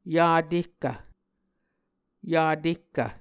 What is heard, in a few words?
"Yatehkv", Muscogee